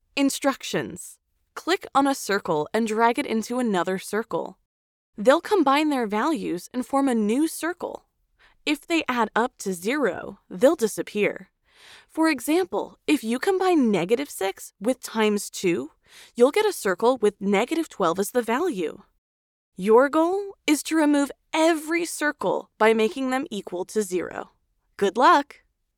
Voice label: Math eLearning Elementary